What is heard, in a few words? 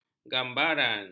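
"gambaran", Malay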